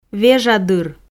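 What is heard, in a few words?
"вежадыр", Komi, female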